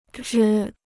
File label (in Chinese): "支", Chinese